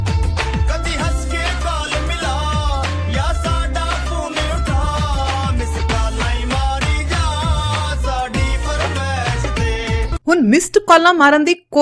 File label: Punjabi; Khalsacellular